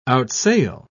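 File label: "outsail", British English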